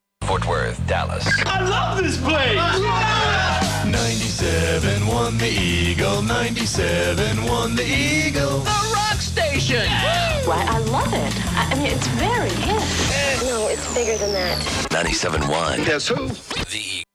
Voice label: Sweeper; KEGL 97-1 The Eagle